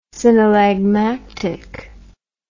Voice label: "synallagmatic", English word